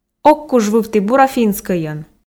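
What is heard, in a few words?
"ог куж вывті бура финскӧйӧн", Komi, female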